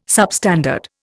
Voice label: "substandard", Indian